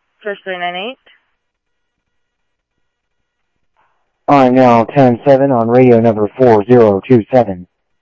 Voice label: Security, scanner audio